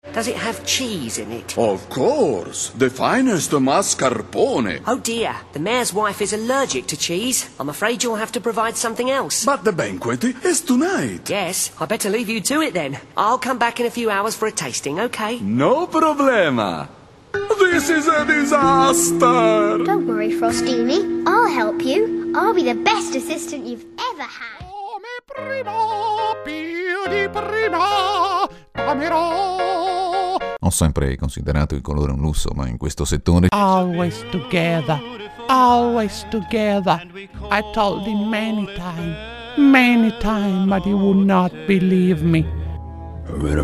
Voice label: male italian english with italian accent and italian character; voices